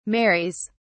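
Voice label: "marries", British English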